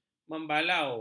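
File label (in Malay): "membalau", Malay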